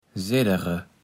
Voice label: "Seddere", platt

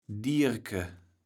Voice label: "Dierke", kaevels platt

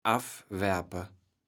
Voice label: "affwerpe", kaevels platt

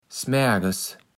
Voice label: "smaerges", Platt

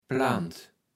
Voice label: "Plant", kaevels platt

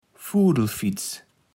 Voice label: "Fudelfiets", Platt

